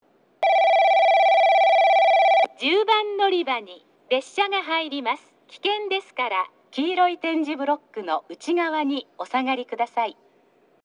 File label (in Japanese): 10番のりば接近放送（簡易放送）; リレー特急